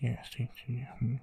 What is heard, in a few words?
Mud man whisper